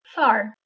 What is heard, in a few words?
"sar" Armenian